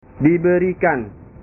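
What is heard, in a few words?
"diberikan", Indonesian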